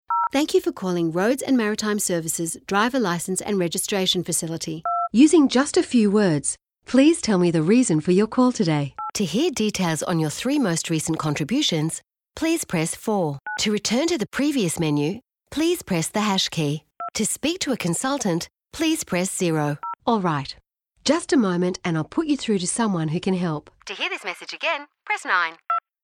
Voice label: ivr